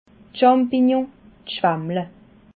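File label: "champignon", Alsatian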